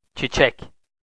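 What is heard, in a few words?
"cicek", Turkish, male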